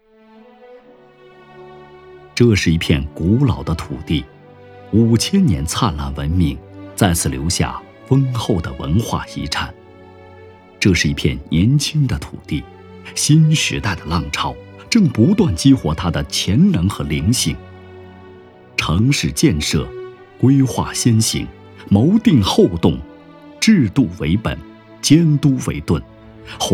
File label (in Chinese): 表彰（激情、大气、舒展）; 城市建设表彰